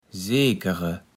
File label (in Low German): "Seekere", platt